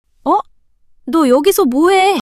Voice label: surprise, monologue